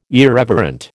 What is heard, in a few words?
"irreverent", American